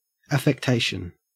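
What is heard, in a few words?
"affectation", Australian English; pronunciations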